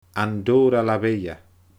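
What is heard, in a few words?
"Andorra la Vella", Scottish Gaelic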